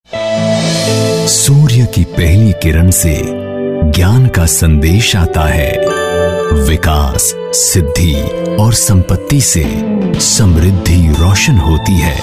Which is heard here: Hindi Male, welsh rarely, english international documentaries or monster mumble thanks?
Hindi Male